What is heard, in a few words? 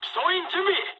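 near waypoint mood high, speech japanese; War Thunder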